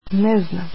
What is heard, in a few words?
I dont know, croatian